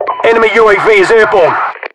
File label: enemy uav air, localized english, UK voiceovers; mpvoice